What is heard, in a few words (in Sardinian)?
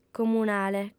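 "comunale", female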